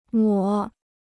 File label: "抹", Chinese